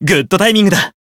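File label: Voice Japanese; healed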